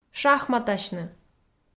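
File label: "шахматасьны", Komi, female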